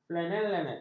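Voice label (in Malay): "flanelet", Malay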